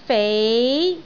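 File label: "fei2", Cantonese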